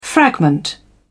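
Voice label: "fragment", English, British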